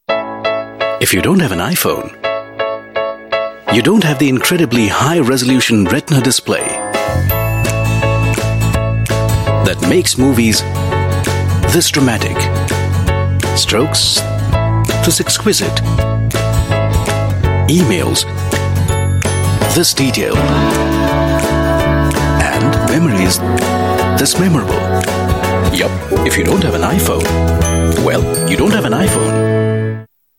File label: Indian English, India Male; iPhone